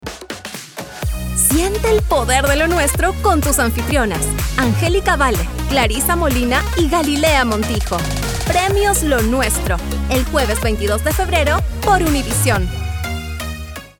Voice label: spanish latin american promos, argentinian spanish